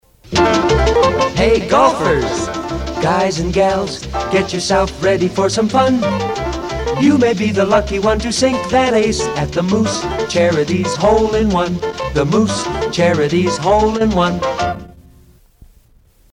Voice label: Ad; Moose Charities Hole in One